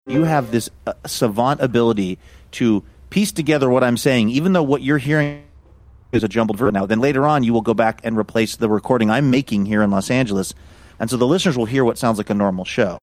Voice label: clip of what sounds like with dropouts and jumbling